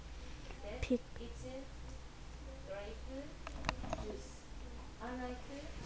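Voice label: "pick", spoken word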